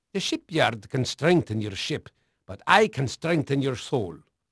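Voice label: Dutch male, voice; Pirates Of The Caribbean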